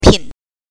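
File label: "pin", Mandarin Chinese, neutral tone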